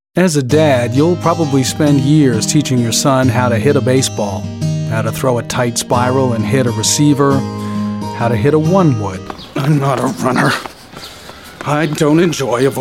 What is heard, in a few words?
Voice Demo